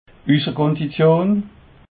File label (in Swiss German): "usserkondition", alsace